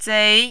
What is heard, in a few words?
"zei2", mandarin